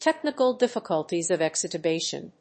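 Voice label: "technical difficulties of extubation", English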